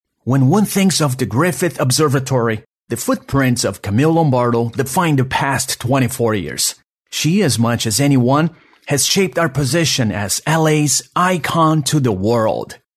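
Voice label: Brazilian Portuguese, male, English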